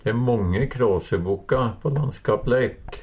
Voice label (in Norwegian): krasebokk, Numedalsmål; Substantiv hankjønn